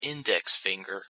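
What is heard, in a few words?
pronunciation "index finger", English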